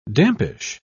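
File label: "dampish", British English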